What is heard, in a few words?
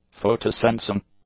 "photosensing", english; adjectives